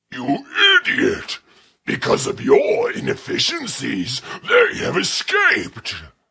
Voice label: You Idiot Escaped, Attitude, Male